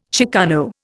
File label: "chicano", Indian